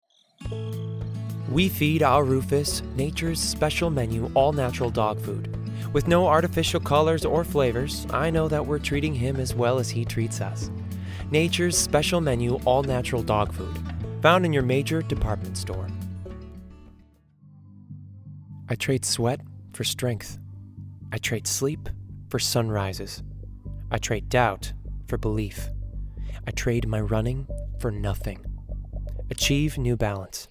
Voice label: Commercial Voiceover